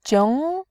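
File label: "jing", Mandarin, second tone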